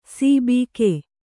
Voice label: "ಸಿಬಿಕೆ", Kannada

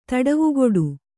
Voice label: "ತಡವುಗೊಡು", Kannada

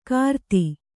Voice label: "ಕಾರ್ತಿ", Kannada

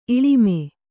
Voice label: "ಇಳಿಮೆ", Kannada

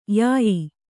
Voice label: "ಯಾಯಿ", Kannada; yāyi

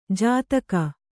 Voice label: "ಜತಿಗೆ", Kannada

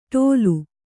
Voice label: "ಡೌಲು", Kannada

